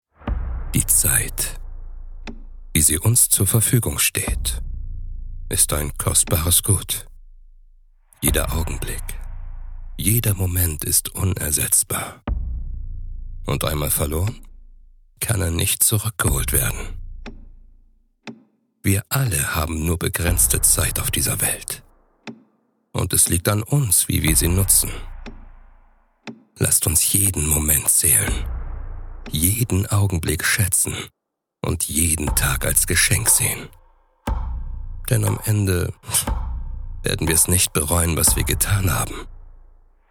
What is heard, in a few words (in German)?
Voiceover; Die Zeit